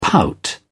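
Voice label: "pout", British English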